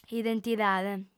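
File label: "identidade", Sardinian, female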